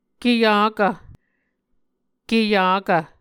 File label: "keyakkv", Muscogee; Mvskoke Words with Repeated Consonants; Martin, Jack B., and Margaret McKane Mauldin. 2023. A Dictionary of Creek/Muskogee